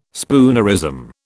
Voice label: "spoonerism", British